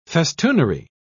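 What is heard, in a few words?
"festoonery", British English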